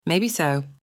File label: female Maybe so